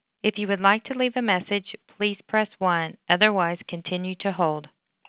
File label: Prompt For Voice Mail